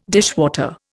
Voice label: "dishwater", Indian

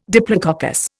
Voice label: "diplococcus", Indian

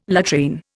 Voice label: "latrine", Indian

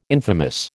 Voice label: "infamous", American

